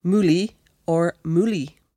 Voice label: "moolie or mooly" [MOOL-ee or MOOLy]; Word

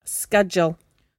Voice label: "scudyel", Cromarty; [scUH-dyel]; a knife; Word

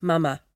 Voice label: "mumma" [mUHma]; grandmother; Word

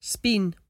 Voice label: "speen", Cromarty; spoon, a; Word

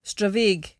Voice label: "stravaig", Cromarty; [stra-VAIgk]; wander, to; Verb

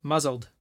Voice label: "muzzled" [MUHZld]; fuddled; Word

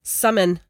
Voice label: "summyn", Cromarty; [SUMin]; some; Word

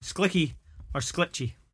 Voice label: "sklichie or sklitchie", Cromarty; [skLIH-hee or skLI-chee]; hopscotch; Word

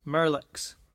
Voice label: "merlicks" [mAER-likz]; baskets; Word

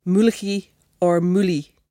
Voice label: "moolachie or moolie" [MOOL-ah-hee or MOOL-ee]; Word

